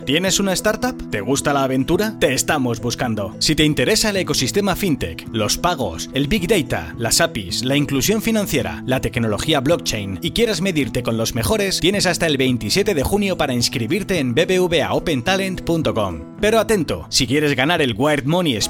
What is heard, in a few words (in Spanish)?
online comercial; BBVA